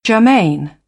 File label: "germane", British; ɝː - ɜː; English